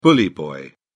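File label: "bully boy", British English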